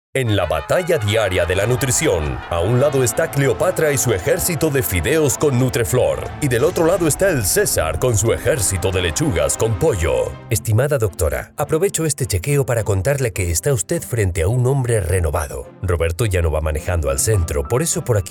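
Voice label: SPANISH Latin American COMMERCIAL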